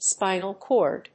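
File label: "spinal chord", English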